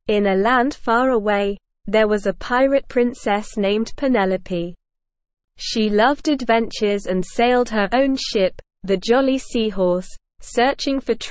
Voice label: ESL Short Stories for Kids Lower Intermediate SLOW Reading; The Pirate Princess